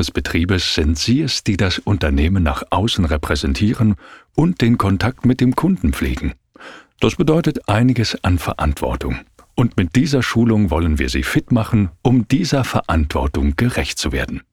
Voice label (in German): Hoerprobe E-Learning Mitarbeiterschulung